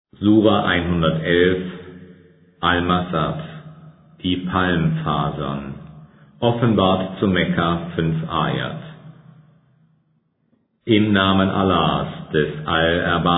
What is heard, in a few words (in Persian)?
ترجمه گویای قرآن کریم به زبان آلمانی; سوره مسد